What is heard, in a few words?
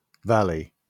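"valley", British English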